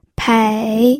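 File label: "pei", Mandarin Chinese, third tone; pinyin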